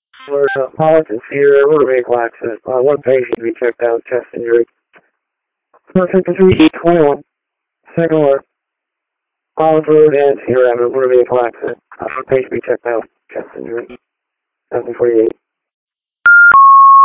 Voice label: paging